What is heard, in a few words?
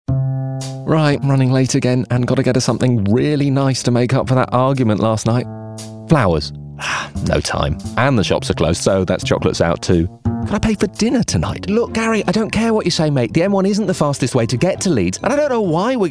Commercial Showreel